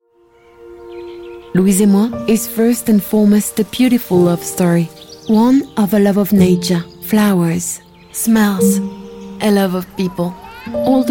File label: ingilizce, english female voices french accent